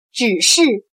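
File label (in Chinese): "只是" Mandarin Chinese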